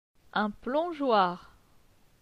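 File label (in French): "Un plongeoir", French; phonetique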